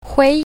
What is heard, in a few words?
"hui1", Mandarin; colors